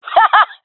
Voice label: cheer, jungle fem; vo; agents; Source Model Packs; Counter Strike Model Pack; CS2; jungle fem epic

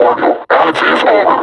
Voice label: announce kill player, combine suppressor

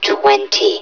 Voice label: "twenty", hev suit; Half Life Alyx

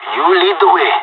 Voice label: following friend, pirate; Counter Strike Model Pack; CS:GO

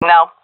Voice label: negative, seal fem; vo; Counter Strike Model Pack; CS:GO

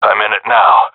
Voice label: scared, seal diver; agents

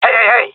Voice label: taking fire friendly, jungle male; Counter Strike Model Pack; CS:GO

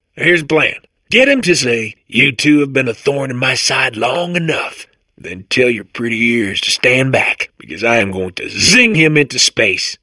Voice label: babble; Portal 2

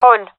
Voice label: hole, fem; gendarmerie fem epic

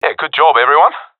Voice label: round won, professional epic; Counter Strike Model Pack; CS:GO

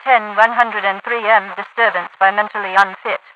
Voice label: disturbance mental 10-103m, radio voice; Half Life 2

